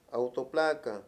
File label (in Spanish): "placa", Mayo; Mundo moderno